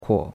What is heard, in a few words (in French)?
"kuo3", chinois; Cours de Chinois